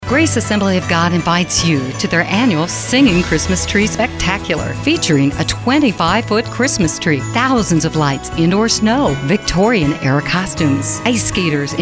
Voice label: Female VO Talent; Premium Voice Over Talent in Indianapolis